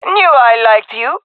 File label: thankful, jungle fem; agents; jungle fem epic